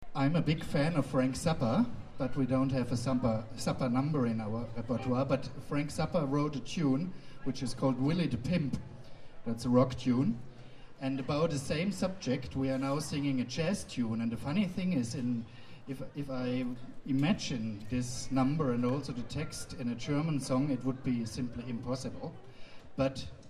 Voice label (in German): Ansage